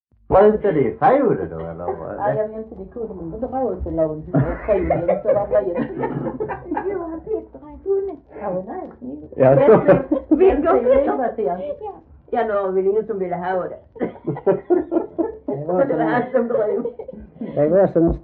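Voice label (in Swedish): Äspö Skåne, dialekter; Folkminnen; Svenska sagor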